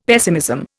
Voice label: "pessimism", Indian; Dictionary

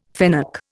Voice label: "fennec", Indian

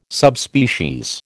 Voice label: "Subspecies", American

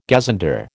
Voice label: "gazunder", American

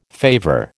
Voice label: "favour", American

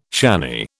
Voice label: "shanny", British

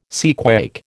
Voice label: "seaquake", American

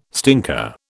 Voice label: "stinker", British

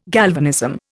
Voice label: "galvanism", Indian

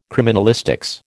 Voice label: "criminalistics", American

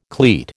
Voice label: "cleat", American

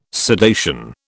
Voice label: "sedation", British

